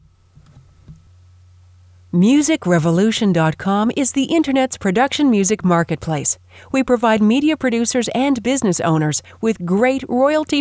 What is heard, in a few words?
scratch voiceover